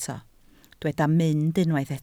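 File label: "mynd" Welsh, spoken word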